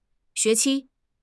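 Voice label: "xue2 qi1", Mandarin Chinese; HSK; HSK4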